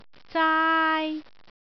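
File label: "zaai1", Cantonese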